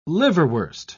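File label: "liverwurst", British English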